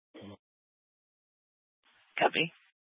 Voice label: Dispatch, scanner; Mesa PD Dpt; 4 Sprstn Dispatch